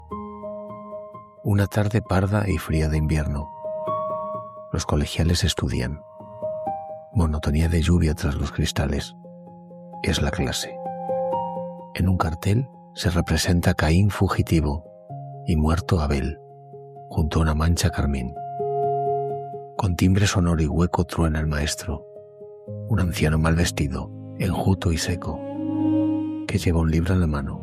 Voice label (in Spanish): voz y music acatarrado; Machado; Recuerdo Infantil; Antonio Machado